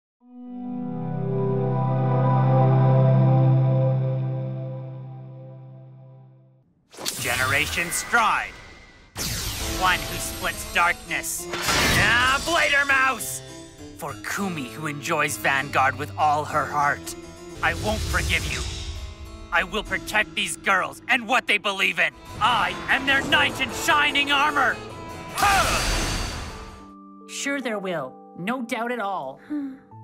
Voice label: ANIMATION

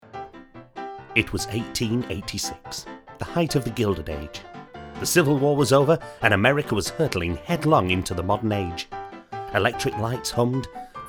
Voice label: voice documentary

